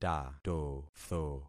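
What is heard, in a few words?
"tatotho", concatenated syllables; Affixing experiment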